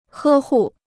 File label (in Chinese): "呵护", Chinese